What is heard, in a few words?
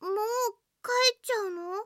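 Japanese anime girl voice sounds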